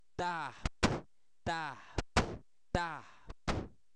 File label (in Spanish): cuatro, Zapoteco, santo domingo albarradas; INALI; numeros